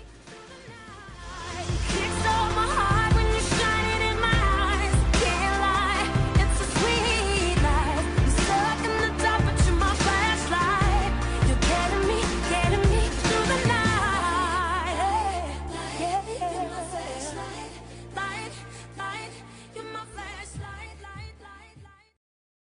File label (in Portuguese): ani distante fem neutra, Aniversário Distante, Pessoa Especial, Feminina; Telemensagens para todas as ocasiões